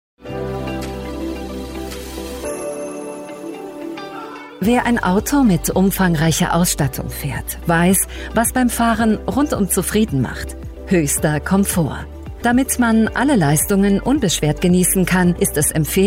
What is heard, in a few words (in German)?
IMAGEFILM souveraen zugewandt; VARTA